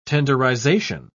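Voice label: "tenderization", British English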